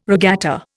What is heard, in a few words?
"regatta", Indian